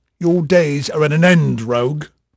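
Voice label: English male, voice; Pirates Of The Caribbean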